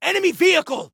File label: Warn Vehicle, Soldier Male